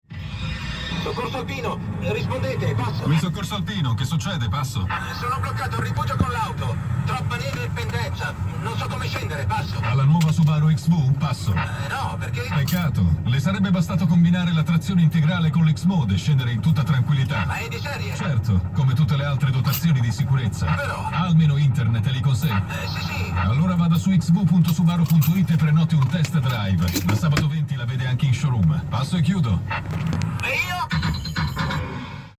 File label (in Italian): Spot; Subaru soccorso alpino